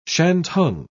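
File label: "shantung", British English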